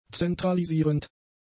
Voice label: "zentralisierend", German; adjectives

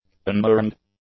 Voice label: "unmourned", English; adjectives

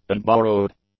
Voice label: "unborrowed", English; adjectives

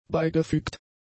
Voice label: "beigefügt", German; adjectives

